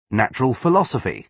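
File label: "natural philosophy", British English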